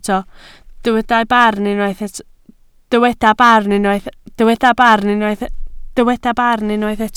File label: "barn", Welsh